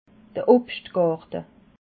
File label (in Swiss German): "obstgarte", alsace